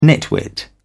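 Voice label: "nitwit", British English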